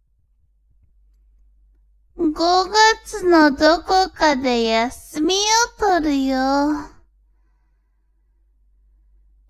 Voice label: woman